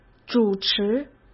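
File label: "主持", Mandarin Chinese; chinese flashcards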